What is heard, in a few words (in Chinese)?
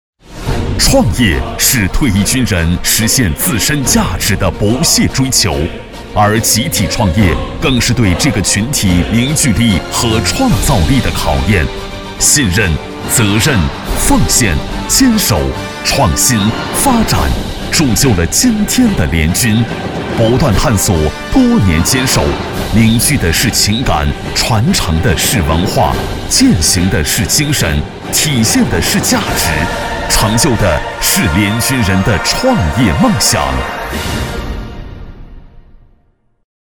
男 专题 大气力度; 创业军人